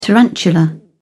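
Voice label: "tarantula", British English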